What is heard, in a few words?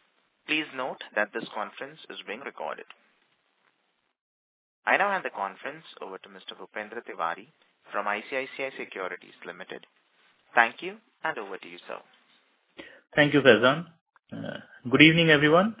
earnings call audio recording